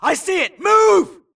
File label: grunt grenade response; imc; Titanfall 2